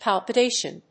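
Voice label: "palpitation", English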